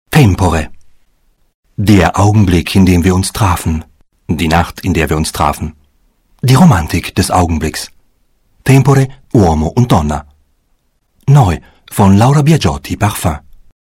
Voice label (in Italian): Pubblicitario